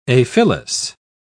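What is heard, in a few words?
"aphyllous", British English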